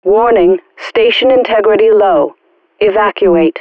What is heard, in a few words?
vo station warning; allegiance